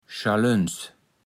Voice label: "schalöns", Platt

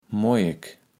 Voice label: "Mojek", Platt

